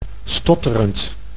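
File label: "stotterend", Dutch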